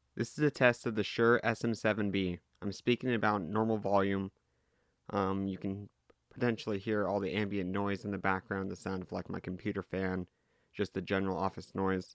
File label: SM7B Test Untreated Mono